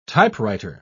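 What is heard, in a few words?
"typewriter", English word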